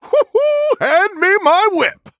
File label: Male Human; Reno Jackson; Diamond Start